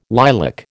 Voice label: "lilac", American

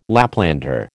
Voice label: "Laplander", American

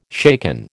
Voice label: "shaken", American

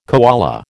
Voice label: "koala", American

